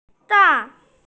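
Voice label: "zai4", Mandarin Chinese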